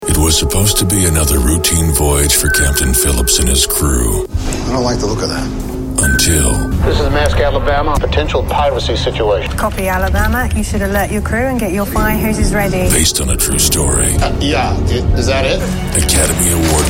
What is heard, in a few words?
Trailer, english american; Trailer General public